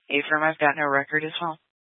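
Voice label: Sheriff Dispatch, scanner audio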